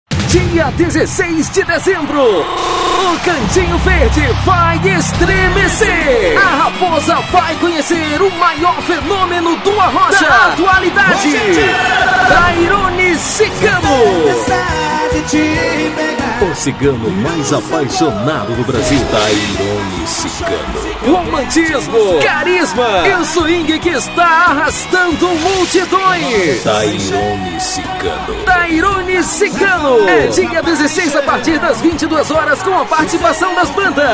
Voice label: spot; fm producoes slz

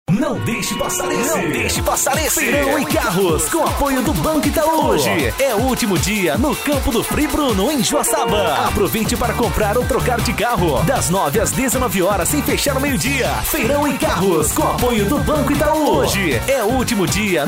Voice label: locutor

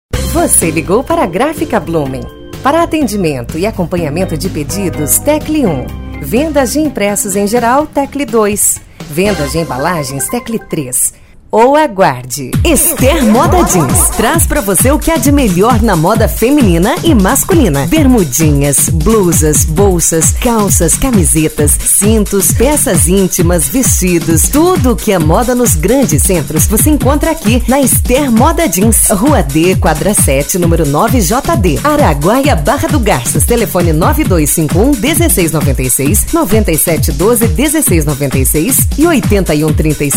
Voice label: locutora

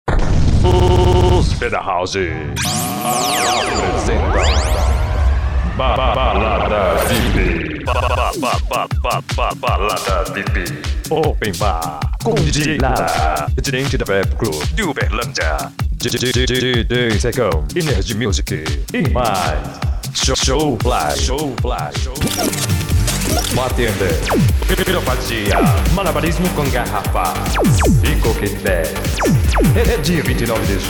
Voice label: voz impacto

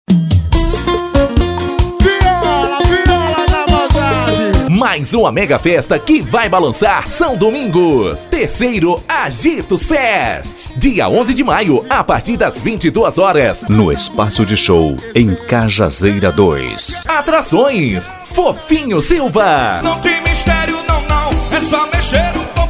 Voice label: comercial; agitos fest

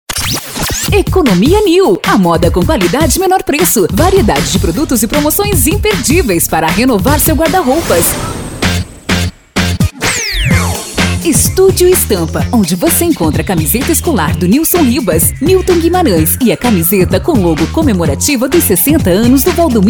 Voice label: voz jovem padrao coloquial e dinamica

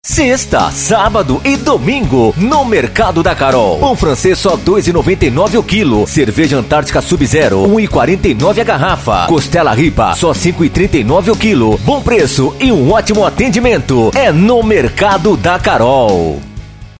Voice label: spot varejo; mercado da carol

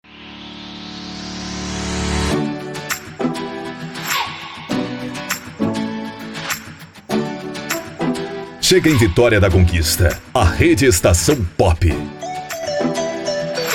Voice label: chamada vinheta; vitoria da conquista

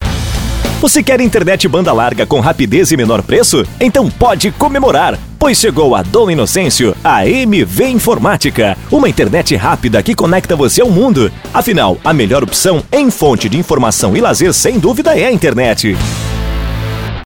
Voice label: VOZ IMPACTO GRAVE vinheta spot chamada comercial; para provedor de internet

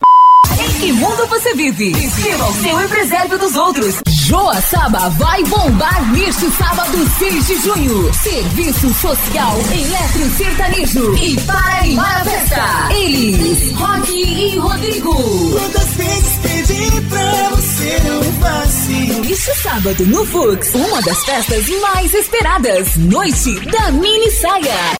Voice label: chamadas vinhetas para festas voz locutora; chamadas de festas

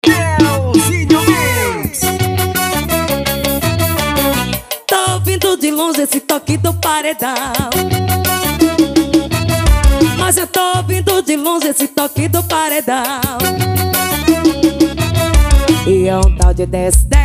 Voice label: promocional

